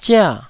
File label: "zia4", taiwanese